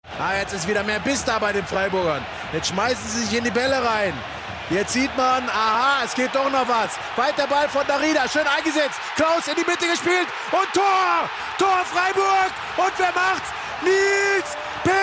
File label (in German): Berlin Fussballkommentator